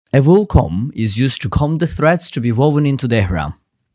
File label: english